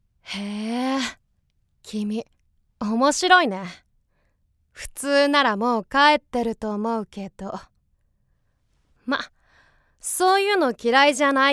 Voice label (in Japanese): ミステリアスな少年